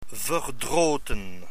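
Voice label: "verdroten", Dutch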